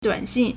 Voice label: "duan3xin4", Chinese

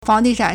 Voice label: "fang2di4chan3", Chinese

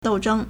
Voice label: "dou4zheng1", Chinese

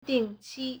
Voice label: "ding4qi1", Chinese

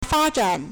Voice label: "fa1zhan3", Chinese

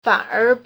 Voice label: "fan3er2", Chinese

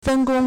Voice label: "fen1gong1", Chinese

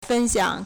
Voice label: "fen1xiang3", Chinese

